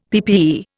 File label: "PPE", Indian; Dictionary